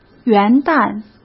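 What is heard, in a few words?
"元旦", Mandarin; chinese flashcards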